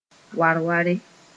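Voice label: "war-ware", Mooré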